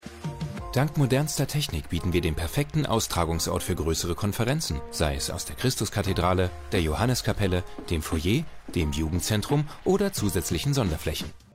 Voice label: Imagefilm

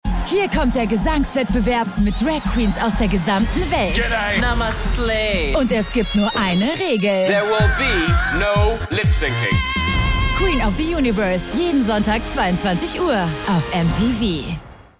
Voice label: Teaser Sprecherin; MTV

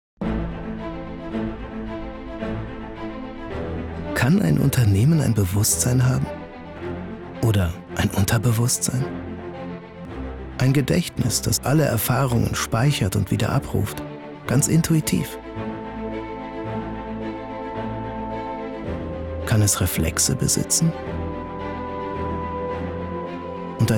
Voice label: tv werbung; sap hana

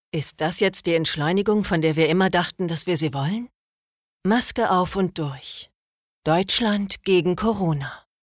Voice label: Werbung Corona; LOFT VOICES

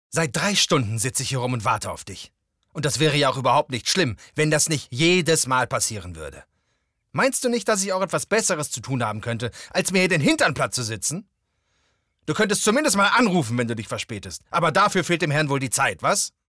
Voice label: Casting Synchron

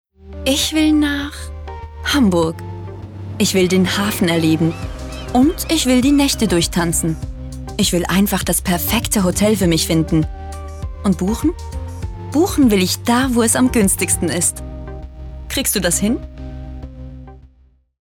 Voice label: Hotel Buchen Spot; LOFT VOICES

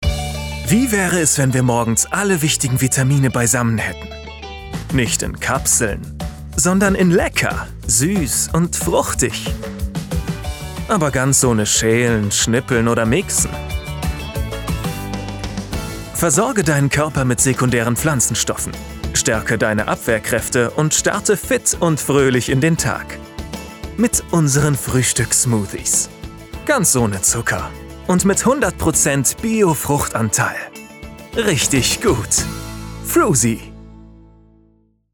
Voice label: werbung smoothie froehlich begeistert voiceovers

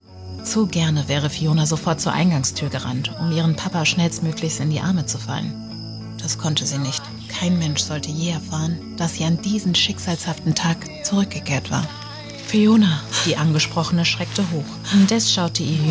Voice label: DE HÖRSPIEL PODCAST; Supmet

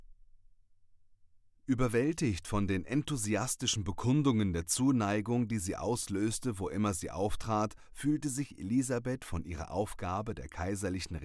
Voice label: Sprachprobe Sachbuch

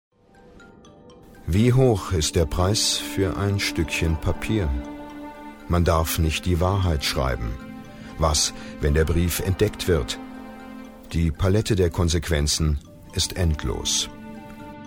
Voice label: doku briefe aus der deportation; LOFT VOICES

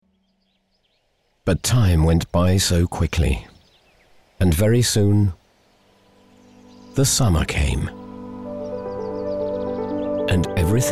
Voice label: uk englisch; voxhaus hh

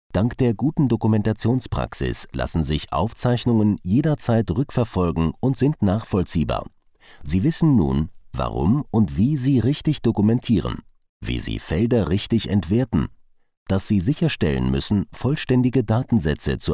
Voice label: e-learning neutral ger

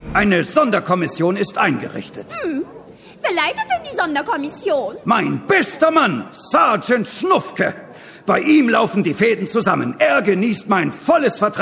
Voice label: polternd; mopsymops

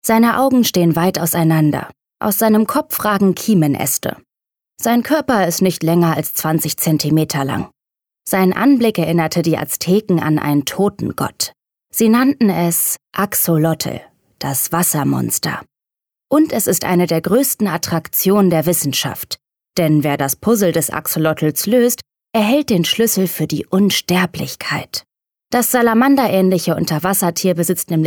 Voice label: Doku

